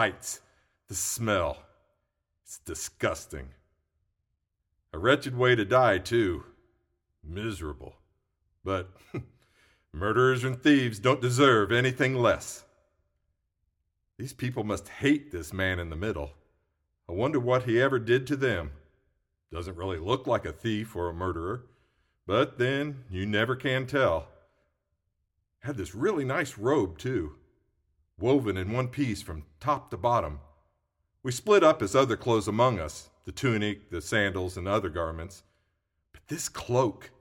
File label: Monologue Soldier; living last words